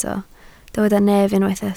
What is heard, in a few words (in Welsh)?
"nef", Welsh